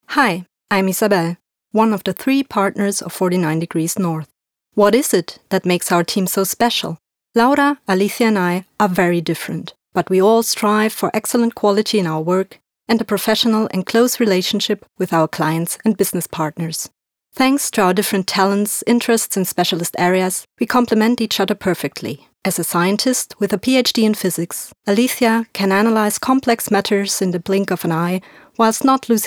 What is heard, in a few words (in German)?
Vorstellung, EN